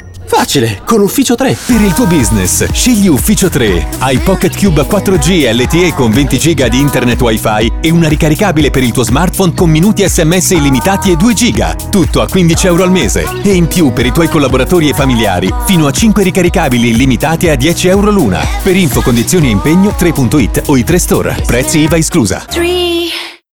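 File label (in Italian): parrucchiere, spot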